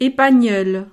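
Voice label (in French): "epagneul", French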